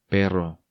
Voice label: "Perro", Spanish